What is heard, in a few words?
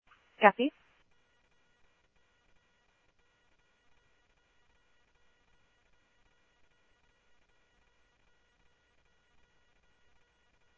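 Mesa Police Department, scanner audio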